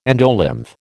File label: "endolymph", American